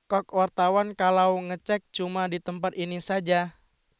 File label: news